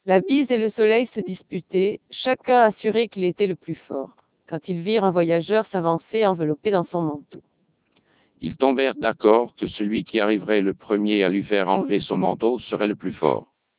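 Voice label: speech CODEC2 3200 bps, French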